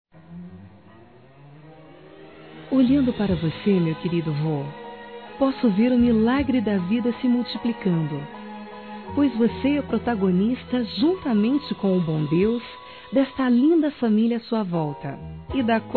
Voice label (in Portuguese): Aniversário Avô Feminino